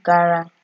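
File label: "gara", Nigerian Pidgin, female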